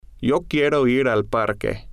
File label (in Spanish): quiero ir al parque, spanish